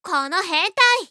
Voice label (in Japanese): この変態！, 小悪魔; ハイグレボイス集